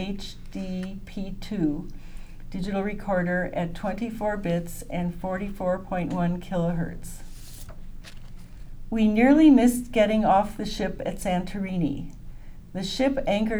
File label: Radio Shack Boundary left AT35s right female, microphones